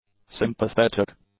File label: "sympathetic", English; adjectives